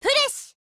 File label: JP; MPK